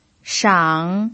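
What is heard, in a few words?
"shang" Mandarin Chinese, third tone, pinyin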